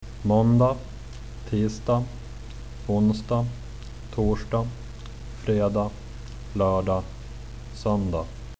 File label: days, swedish